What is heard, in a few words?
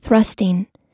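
"thrusting", US English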